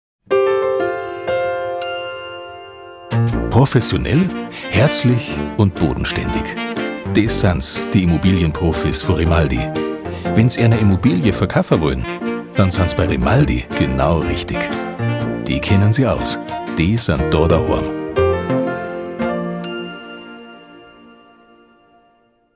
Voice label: radiospot